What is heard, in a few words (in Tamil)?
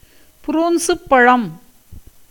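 "புரூன்சுப் பழம்", Tamil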